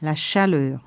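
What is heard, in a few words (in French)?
"la chaleur", French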